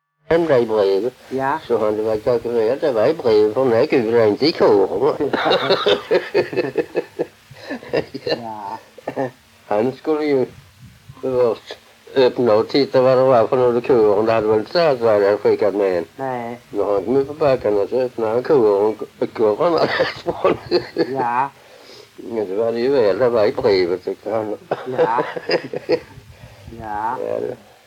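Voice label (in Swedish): Allerum Skåne, dialekter; Folkminnen; Svenska sagor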